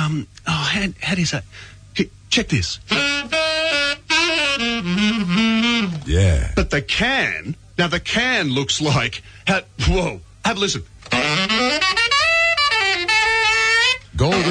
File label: Classic Aussie commercials soft drink; Aircheck; Golden Circle